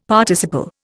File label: "Participle", Indian; Dictionary